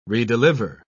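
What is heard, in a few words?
"redeliver", English, British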